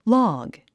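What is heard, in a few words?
"log", English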